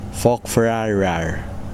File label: "mud", Kosraean